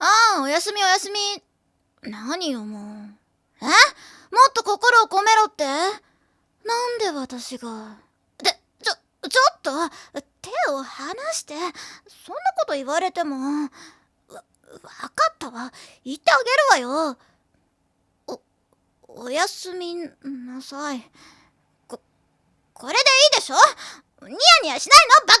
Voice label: イメージボイス 終了、おやすみなさい系; はたて

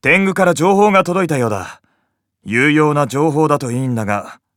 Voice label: 情報更新, PCシステムボイス

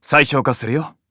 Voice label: 最小化, PCシステムボイス

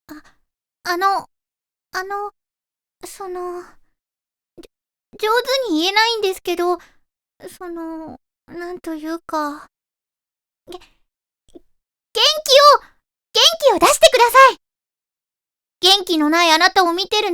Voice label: イメージボイス 慰めボイス; 椛

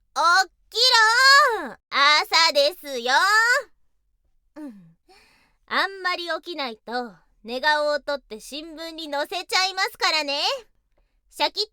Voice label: イメージボイス 起動音、目覚まし系

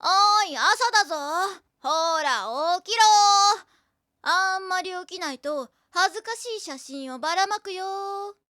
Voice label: イメージボイスはたて 起動音、目覚まし系

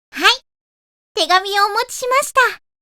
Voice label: イメージボイス メール着信; 椛

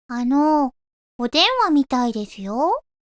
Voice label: イメージボイス椛 着信っぽいイメージ

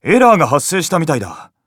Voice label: エラー, PCシステムボイス

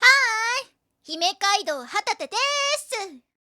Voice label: イメージボイス 自己紹介; はたて

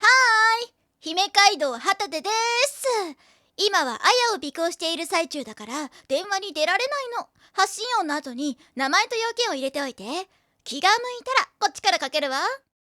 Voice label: イメージボイス 留守電っぽいイメージ; はたて

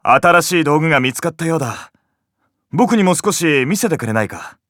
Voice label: 接続, PCシステムボイス

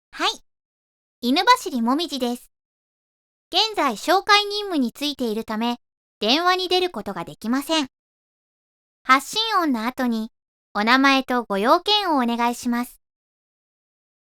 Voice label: イメージボイス椛 留守電っぽいイメージ

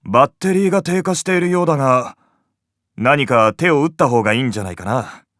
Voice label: バッテリー低下, PCシステムボイス

